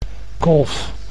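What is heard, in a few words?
"kolf", Dutch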